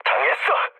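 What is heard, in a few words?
Korean aircraft destroyed mood low; War Thunder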